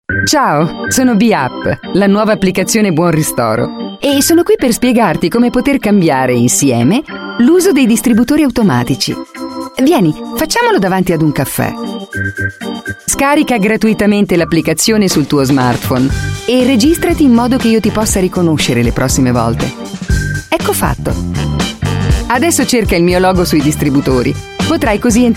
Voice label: Italian

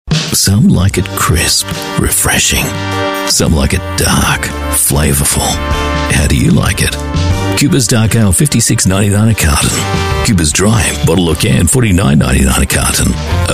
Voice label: AU English; Coopers Brewery

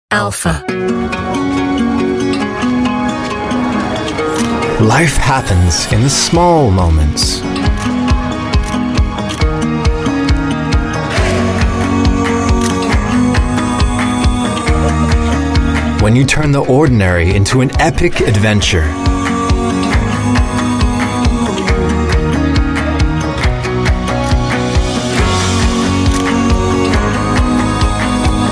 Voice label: US English; Sony A7C

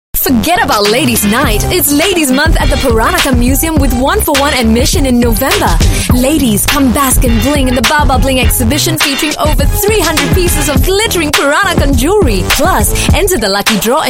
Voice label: Asian English Expressive; Peranakan Ladys Nite

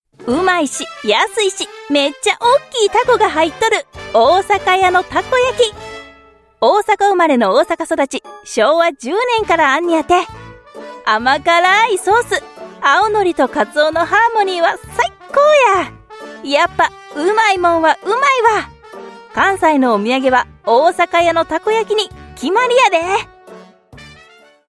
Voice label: Japanese Osaka Dialect Commercial, Female

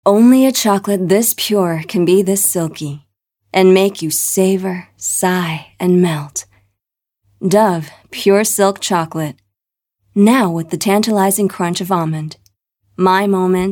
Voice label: US English VO Soft, English US Female

